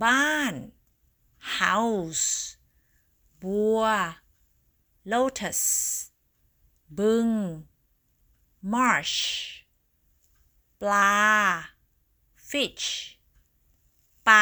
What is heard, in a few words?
Learning Thai words